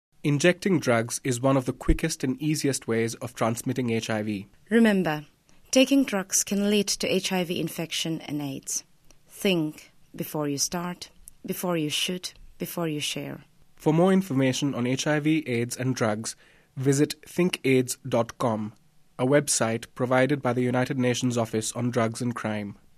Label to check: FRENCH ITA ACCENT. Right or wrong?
Wrong